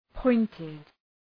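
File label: "pointed", English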